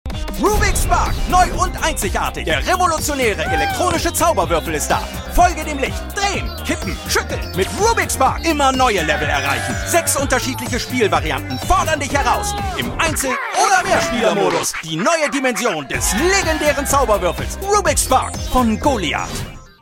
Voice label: tv spot; rubiks spark

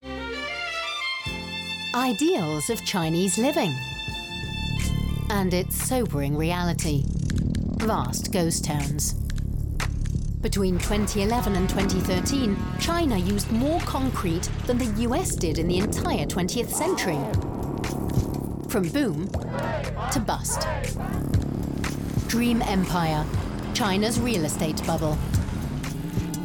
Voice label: voice artist teaser documentary; Muttersprache Englisch; Sprecher in Berlin

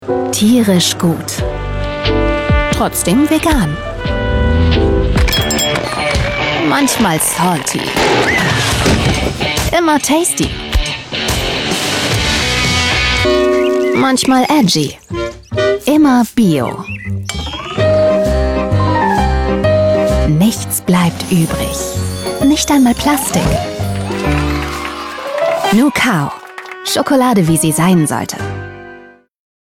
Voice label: tvc; nucao; Muttersprache Deutsch

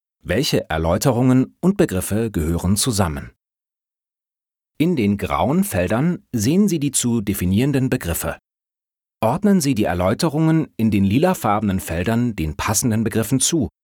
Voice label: e-learning

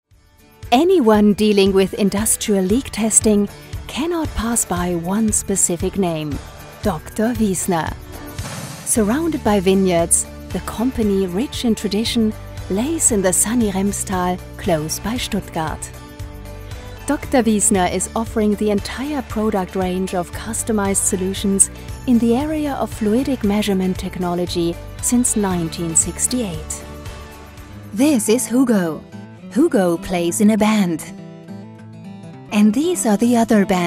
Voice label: image englisch

